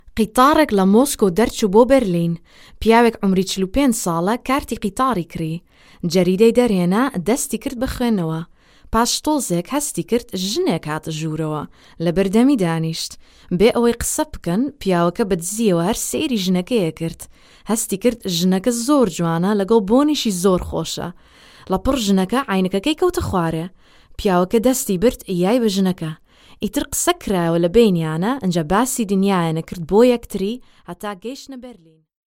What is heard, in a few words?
Kurdish